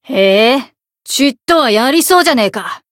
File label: encount fear, jp; kid